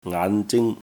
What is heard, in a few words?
"ngaan5 zing1", Cantonese